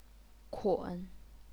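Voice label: "kun", Chinese, third tone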